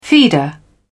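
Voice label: "feeder", British English, dictionary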